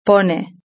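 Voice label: "pone" Spanish; verb-conjugator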